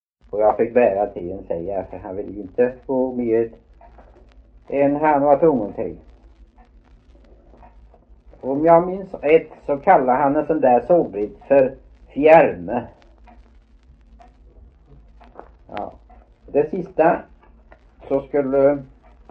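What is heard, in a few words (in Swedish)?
Veddige Halland, Svenska sagor dialekter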